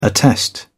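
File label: "attest", English, British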